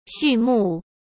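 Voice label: "序幕", Mandarin Chinese; chinese flashcards; hsk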